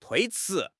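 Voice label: "tui1 chi2", Mandarin Chinese; HSK; HSK4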